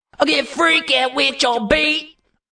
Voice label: Vocal Get Freaky Wit Ur Beat, Funk vocal loop sample.; 110bpm